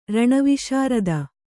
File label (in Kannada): "ರಣವಿಶಾರದ", Kannada; raṇa viśarada; noun